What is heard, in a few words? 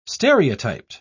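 "stereotyped", British English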